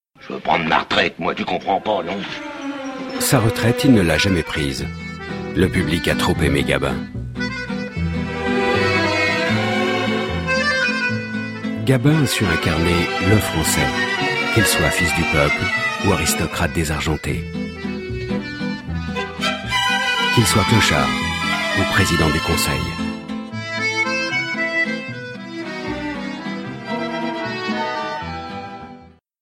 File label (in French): Voix Off; Gabin une ame française